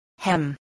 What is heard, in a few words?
"hem", English, spelling